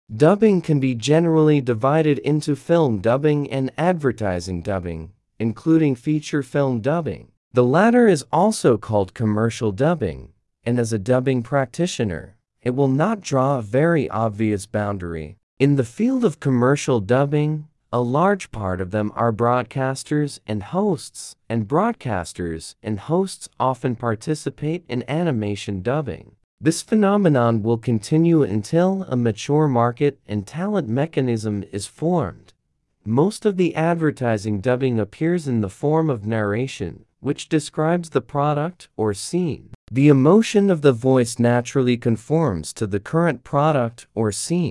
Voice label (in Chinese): 样本英语